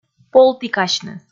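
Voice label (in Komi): "полтікасьны", Komi, female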